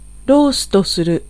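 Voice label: Japanese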